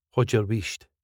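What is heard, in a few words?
Haud yer wheesht, Scottish English; 静かにしてくれない？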